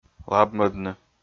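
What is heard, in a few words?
"лабмӧдны", Komi-Zyrian, male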